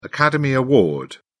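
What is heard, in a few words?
"academy award", English, British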